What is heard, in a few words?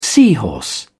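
"seahorse", English, British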